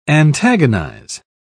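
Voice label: "antagonise", British English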